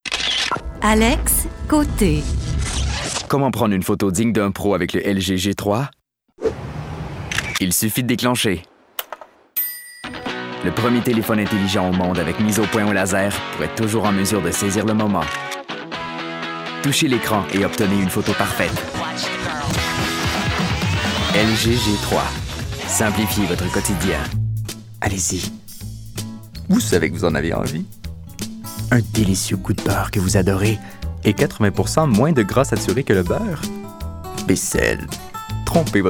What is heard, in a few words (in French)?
demo voix